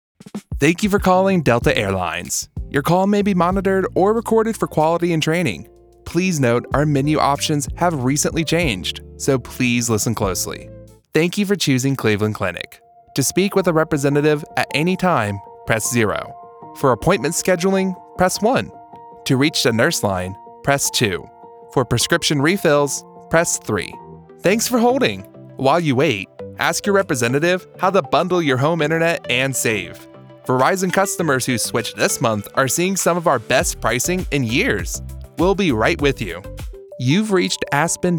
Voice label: telephone ivr